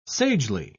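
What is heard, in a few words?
"sagely", British English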